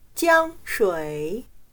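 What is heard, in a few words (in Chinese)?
"江水", Chinese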